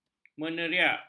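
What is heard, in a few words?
"meneriak", Malay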